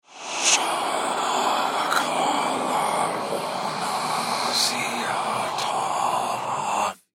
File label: Horror Evil Spell Voice; Evil Voices